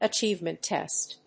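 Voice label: "achievement test", English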